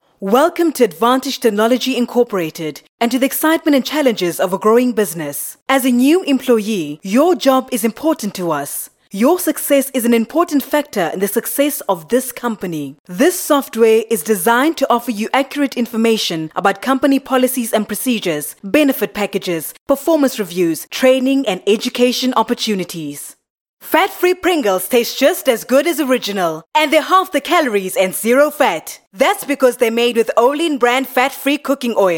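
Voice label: Xhosa English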